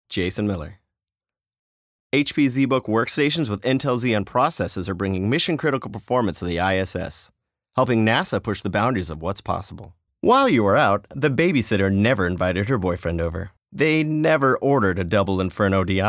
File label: commercial